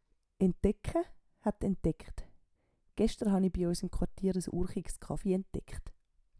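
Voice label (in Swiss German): "entdecke", Swiss German; Wortschatz Kapitel 8; Kapitel 8 Zusatzwortschatz 3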